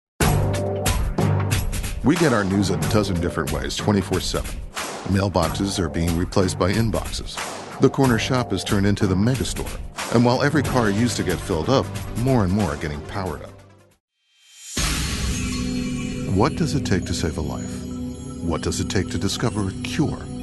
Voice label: serious

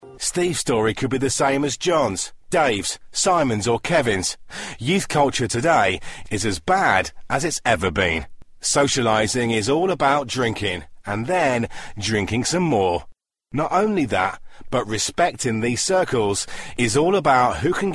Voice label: narration

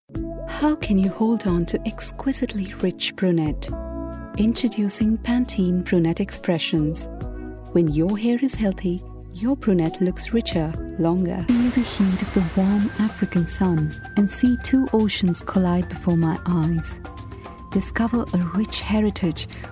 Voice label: commerical

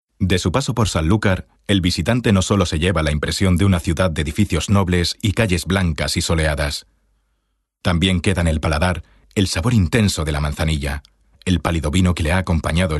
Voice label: documentary

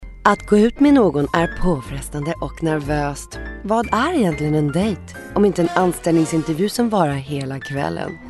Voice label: commercial

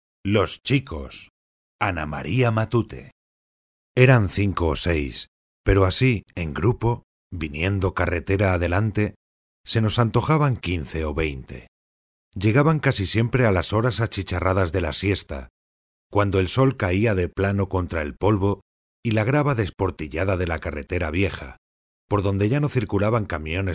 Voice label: audiobook

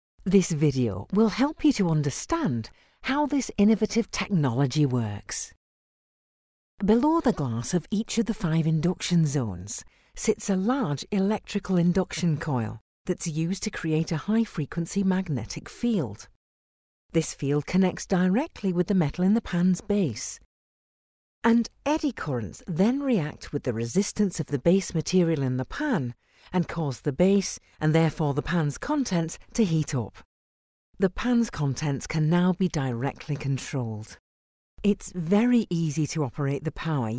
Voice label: northern

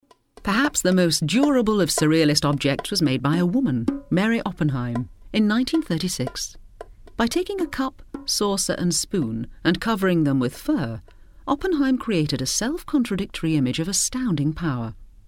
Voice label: narration

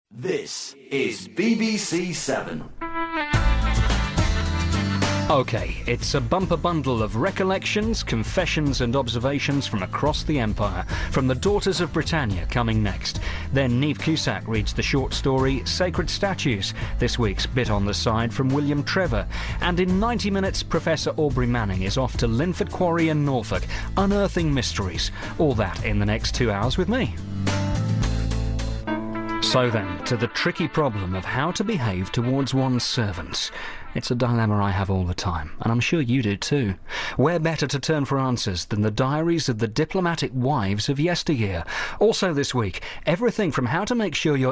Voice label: radio dj

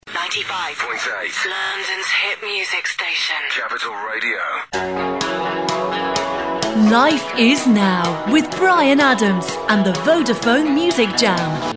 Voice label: radio imaging

